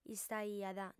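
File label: "istaiat", female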